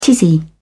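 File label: "tizzy", British English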